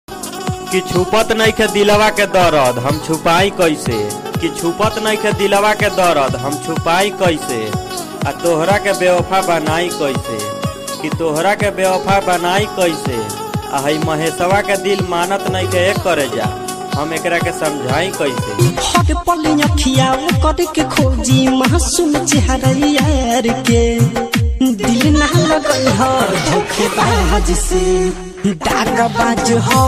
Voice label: mahesh name call ringtone shayari ringtone bhojpuri; bhojpuri superhit ringtone